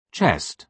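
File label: "chest", British English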